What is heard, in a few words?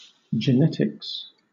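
"genetics", British English; pronunciations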